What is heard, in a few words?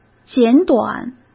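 "简短", Mandarin Chinese; hsk; chinese-flashcards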